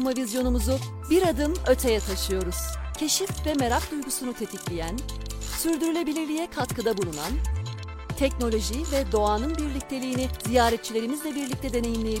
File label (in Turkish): müşteri hizmetleri; yepyeni konseptimiz ile ankara sogutozu musteri hizmetleri